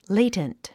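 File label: "latent", English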